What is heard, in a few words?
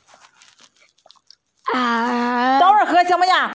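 "er3", Mandarin Chinese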